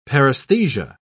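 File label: "paresthesia", British English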